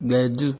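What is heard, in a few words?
"gbedu", Nigerian Pidgin, male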